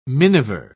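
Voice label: "miniver", British English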